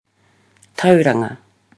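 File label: "tauranga", Māori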